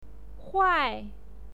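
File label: "huai", Mandarin Chinese pinyin, fourth tone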